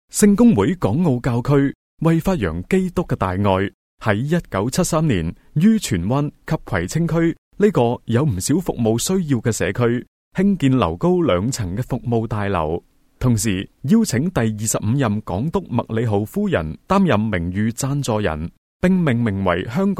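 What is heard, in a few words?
chinese cantonese male commercial; voice demos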